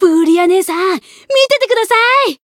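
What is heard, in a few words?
Voice growth Japanese; ctos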